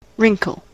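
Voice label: "wrinkle", US English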